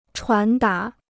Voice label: "传达", Chinese

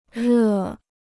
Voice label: "热", Chinese

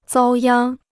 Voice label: "遭殃", Chinese

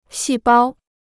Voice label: "细胞", Chinese

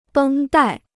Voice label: "绷带", Chinese

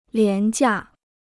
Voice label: "廉价", Chinese

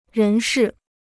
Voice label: "人事", Chinese

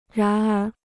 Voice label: "然而", Chinese

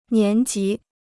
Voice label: "年级", Chinese

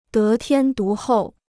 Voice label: "得天独厚", Chinese

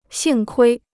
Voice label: "幸亏", Chinese

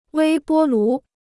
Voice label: "微波炉", Chinese

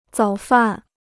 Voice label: "早饭", Chinese

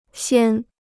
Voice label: "先", Chinese

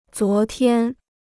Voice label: "昨天", Chinese

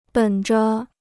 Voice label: "本着", Chinese

